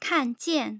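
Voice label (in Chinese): kan4 jian4, Mandarin; tough sounds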